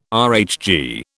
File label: "RHG", British